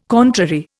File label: "contrary", Indian